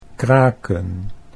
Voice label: "kraken", Dutch

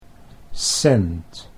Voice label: "cent", Dutch

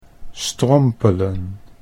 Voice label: "strompelen", Dutch

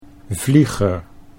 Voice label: "vlieger", Dutch

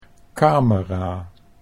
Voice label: "camera", Dutch

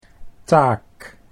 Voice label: "taak", Dutch

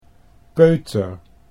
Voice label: "peuter", Dutch

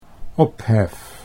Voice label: "ophef", Dutch

